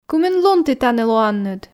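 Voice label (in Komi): кымын лун тi танi лоанныд, Komi, female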